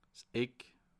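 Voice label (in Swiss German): "eck", Bas Rhin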